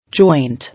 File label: "Joint", English